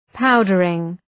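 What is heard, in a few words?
"powdering", English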